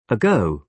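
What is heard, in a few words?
"ago", British English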